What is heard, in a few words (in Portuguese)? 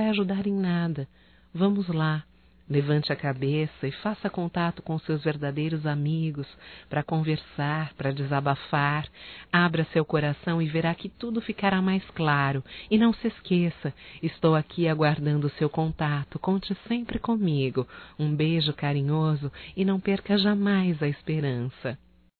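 Otimismo amiga female, Só Voz; Telemensagens; AMIZADE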